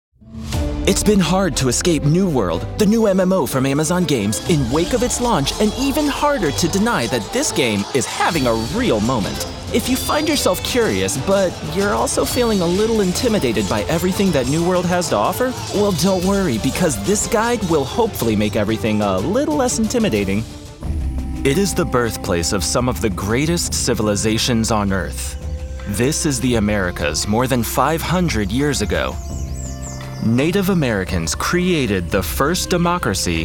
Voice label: Narration